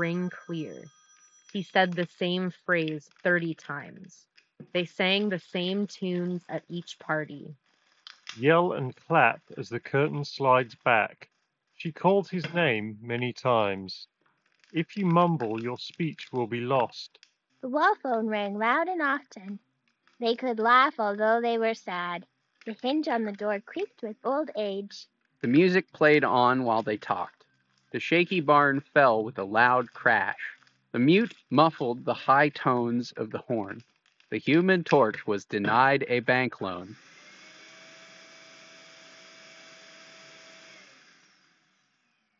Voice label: Razer Barracuda Pro Office microphone sample